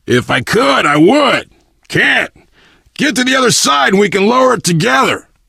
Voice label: initial meeting, survivor voice biker